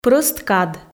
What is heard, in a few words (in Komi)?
прӧст кад, f